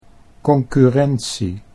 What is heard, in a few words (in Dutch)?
"concurrentie", Dutch